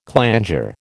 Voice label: "clanger", American